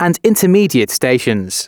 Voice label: announcements, male

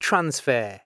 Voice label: Transfr, announcements male

